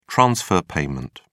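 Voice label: "transfer payment", British English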